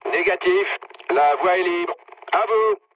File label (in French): chat, speech fr